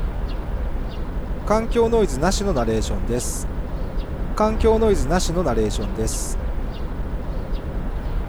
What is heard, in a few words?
narration without noise processed